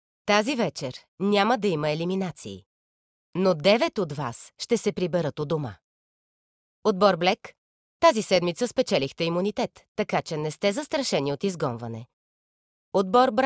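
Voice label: bulgarian female narration, voice demos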